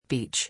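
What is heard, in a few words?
"Beach", English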